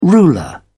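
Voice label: "ruler", English, British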